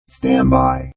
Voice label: Robot Voice "Stand By"; Robot Sound Effects; Lynne Music